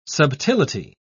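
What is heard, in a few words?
"subtility", British English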